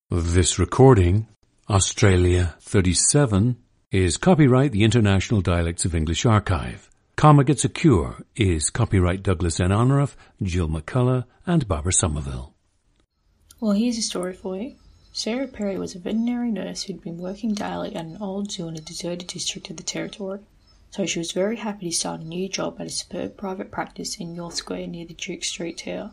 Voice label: australia; Australia 37; International Dialects of English Archive; a 17-year-old girl from Sarina, near Mackay